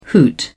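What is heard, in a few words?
"hoot", British English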